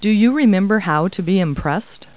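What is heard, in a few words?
woman; Talking Clip Words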